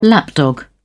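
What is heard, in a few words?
"lapdog", British English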